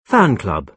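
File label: "fan club", British English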